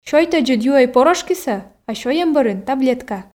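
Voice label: "сёйтӧдзыд юӧй порошкисӧ а сёйӧм бӧрын таблетка", Komi, female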